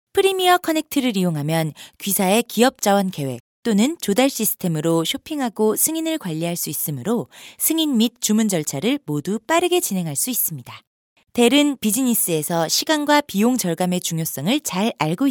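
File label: Korean, female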